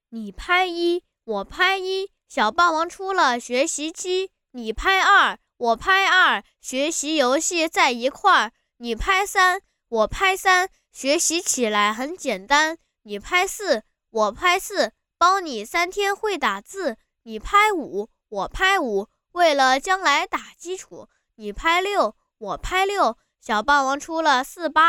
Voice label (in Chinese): 男童广告